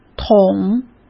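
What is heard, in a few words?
"筒", Mandarin Chinese; chinese flashcards; hsk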